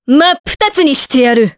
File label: Vox Skill, jp; Priscilla; kingsraid